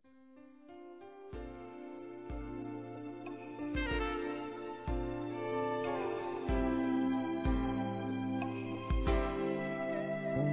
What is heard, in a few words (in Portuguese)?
INCENTIVO A FUNCIONARIA-F, Telemensagens Empresarial; fem; Telemensagens 2017